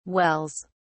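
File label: "wells", British English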